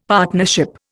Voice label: "partnership", Indian; Dictionary

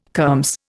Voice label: "kermes", Indian

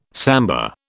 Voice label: "samba", British

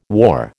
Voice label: "war", American

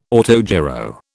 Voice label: "autogyro", British

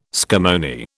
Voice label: "scammony", British

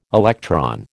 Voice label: "electron", American

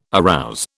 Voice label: "arouse", British

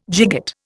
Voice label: "gigot", Indian

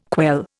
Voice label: "quell", Indian

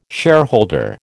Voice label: "shareholder", American

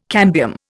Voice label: "cambium", Indian

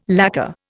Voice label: "lacker", Indian

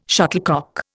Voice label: "shuttlecock", Indian

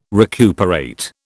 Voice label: "recuperate", British

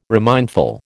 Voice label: "remindful", American

